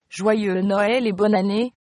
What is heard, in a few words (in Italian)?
francese